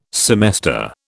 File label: "semester", British